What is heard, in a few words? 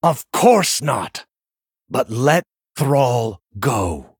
Male Dragon Victory